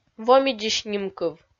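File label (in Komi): "вомидзысь нимкыв", Komi, female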